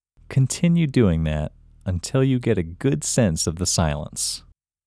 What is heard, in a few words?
Locate IN Male